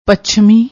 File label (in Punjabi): "pachami", Punjabi